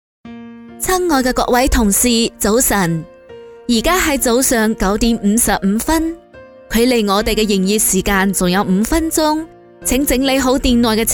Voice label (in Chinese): 粤语商场温馨提示